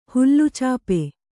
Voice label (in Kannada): "ಹುಲ್ಲುಚಾಪೆ", kannada